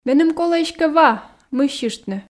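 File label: Komi, female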